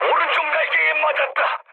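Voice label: Korean right wing mood med, speech; War Thunder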